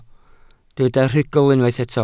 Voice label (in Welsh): "rhugl", Welsh